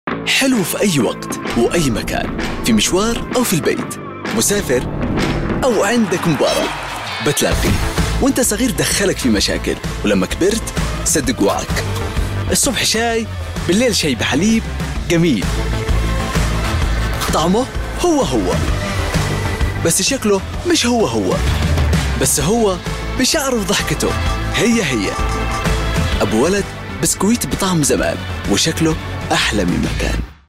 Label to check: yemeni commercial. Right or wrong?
Right